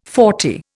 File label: "forty", Indian